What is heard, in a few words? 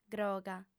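"groga", Sardinian, female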